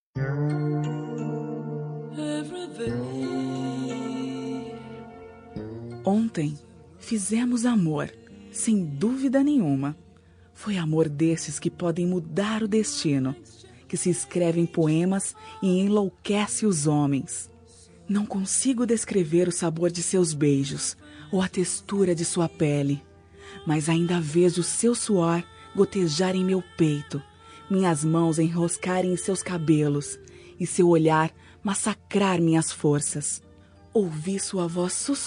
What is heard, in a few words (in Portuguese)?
picante feminina; Telemensagem Picante – Voz Feminina